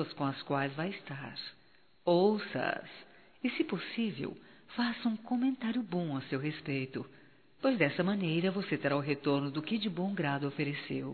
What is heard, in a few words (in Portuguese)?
BOA NOITE, fem; Bom dia, tarde e noite; Telemensagens 2017